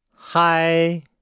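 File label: "hai", Chinese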